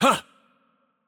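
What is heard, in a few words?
Attack Light, Mexican Spanish; es MX; VO; bloom minigame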